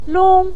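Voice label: "long", Mandarin Chinese neutral tone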